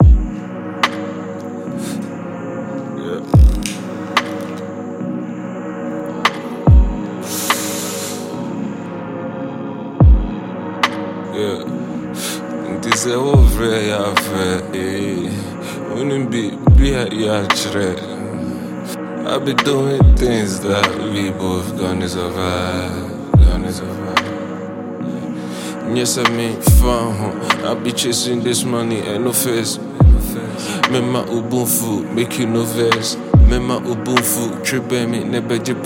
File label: Phone Call